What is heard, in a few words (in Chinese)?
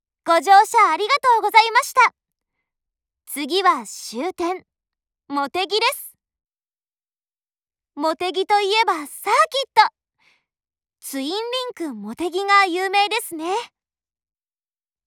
市桥站发车 广播, 车内广播; 万冈铁路车内广播；茂木方向